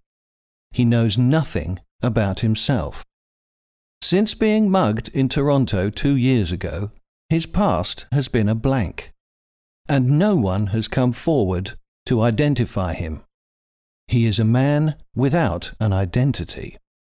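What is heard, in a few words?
English british male; dictor; dictor-sounds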